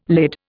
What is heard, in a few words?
"lid", Indian